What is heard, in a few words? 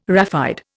"raphide", Indian